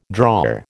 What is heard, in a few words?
"drawer", American